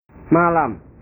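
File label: "malam", Indonesian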